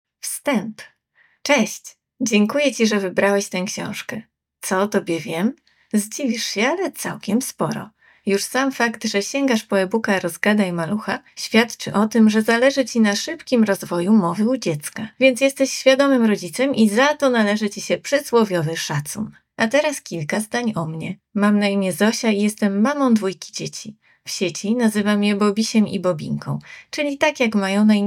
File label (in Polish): Audiobook, fragment wstepu; Rozgadaj malucha